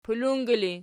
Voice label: "pulungi", Archi